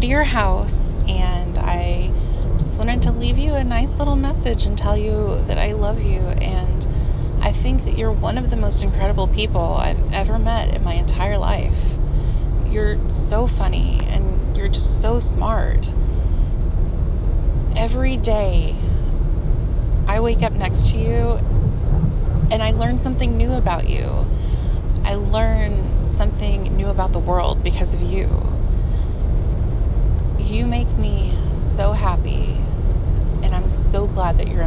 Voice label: F4M, Voicemail, Road Noise, Affection, Sweet; GFE; Affirmation

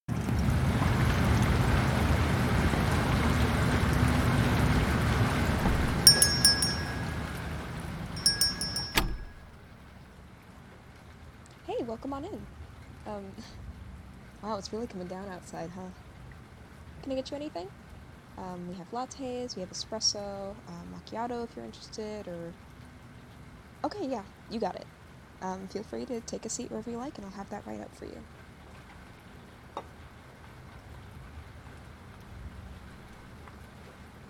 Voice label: Chatty Barista, Coffee Shop, Chatty; F4A; Chatty Barista Comforts you on a Rainy Day; Barista VA; Rain Sounds; Are you ok; Gentle

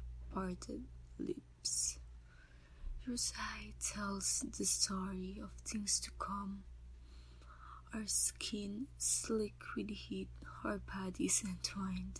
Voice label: Conversation

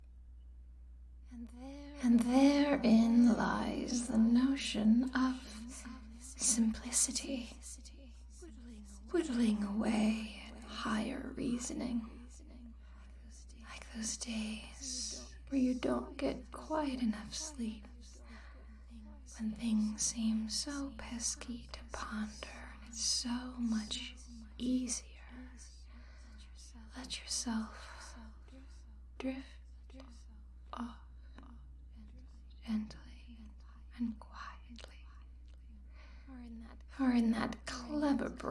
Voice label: Hypnosis reverb test

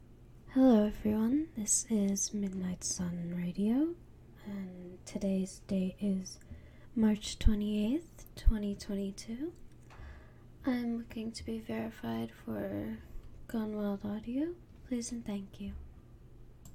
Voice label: F, Verification

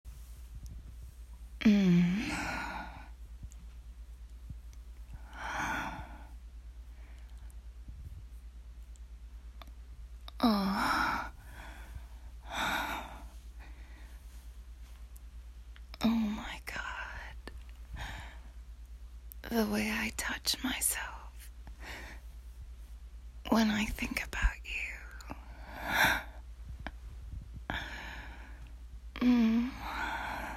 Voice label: Secret Valentine Voicemail

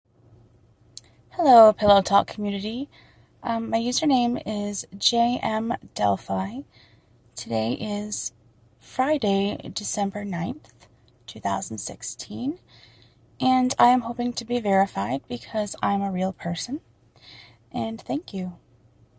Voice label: Pillowtalk verification, Erotic audio

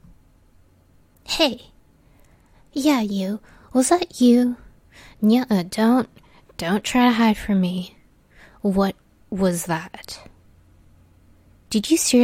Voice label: Catperson - Annoyed; Character Study

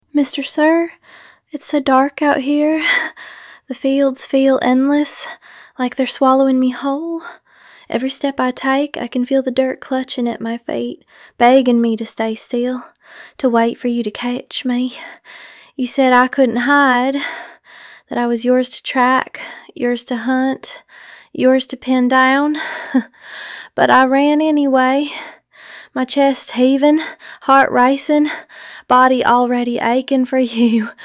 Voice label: Narrative, Voice Only, Primal; F4M; Pinned Prey Fields of Claim

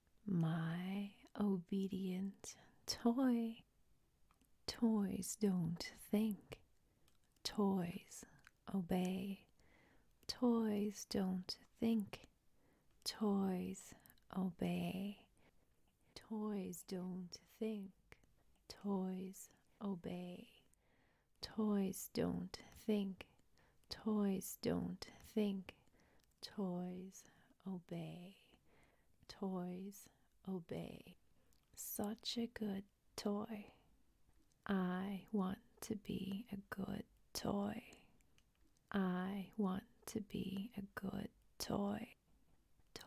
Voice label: Toy Mantra

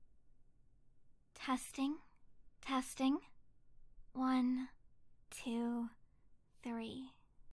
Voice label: binaural test

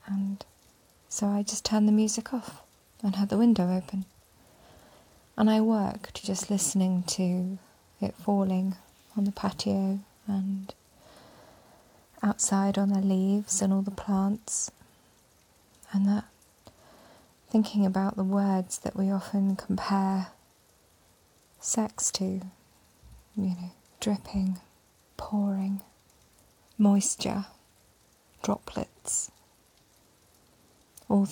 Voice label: A Ramble in the Rain, Ramblefap, ASMR, Rain; F4A; Making me wet

